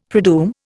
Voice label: "predoom", Indian; Dictionary

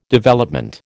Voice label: "Development", American

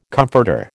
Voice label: "comforter", American

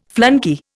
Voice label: "flunkey", Indian

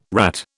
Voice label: "rat", British

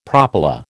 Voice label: "propyla", American

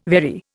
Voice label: "very", Indian

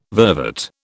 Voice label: "vervet", British

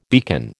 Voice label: "beacon", American

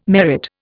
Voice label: "merit", Indian

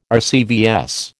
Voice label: "RCVS", American

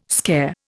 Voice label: "scare", Indian

